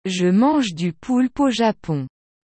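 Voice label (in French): "Je mange du poulpe au Japon", French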